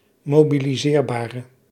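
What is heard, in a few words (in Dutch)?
"mobiliseerbare", Dutch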